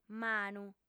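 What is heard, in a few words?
"manu", female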